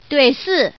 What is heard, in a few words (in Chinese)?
"dui", woman; guizhou